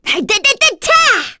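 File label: Vox Casting, Korean; Cecilia; kingsraid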